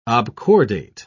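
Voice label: "obcordate", British English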